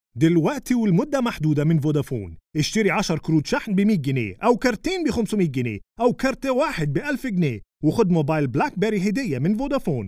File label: mock advert; vodafone